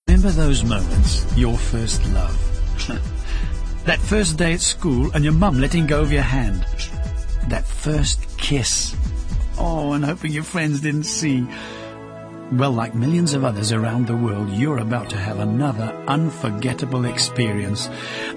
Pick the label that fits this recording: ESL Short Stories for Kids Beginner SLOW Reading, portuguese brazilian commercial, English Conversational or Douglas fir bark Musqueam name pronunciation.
English Conversational